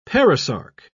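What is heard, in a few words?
"perisarc", British English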